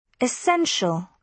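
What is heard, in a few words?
"essential", British English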